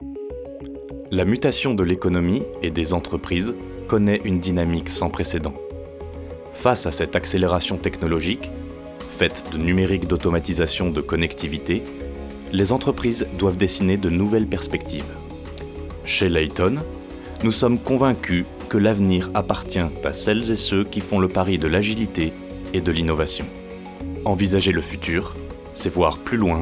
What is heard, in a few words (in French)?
Voix-Off sobre institutionnelle; Leyton